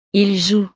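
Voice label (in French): "ils jouent", French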